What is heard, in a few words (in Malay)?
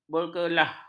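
"berkelah", Malay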